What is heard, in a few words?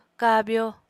"kabyo", Apatani